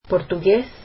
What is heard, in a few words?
Portuguese